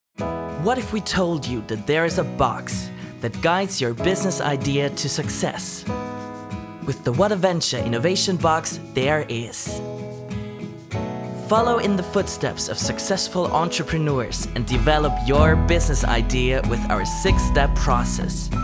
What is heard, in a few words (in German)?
Werbung österreichisch; WhatAVenture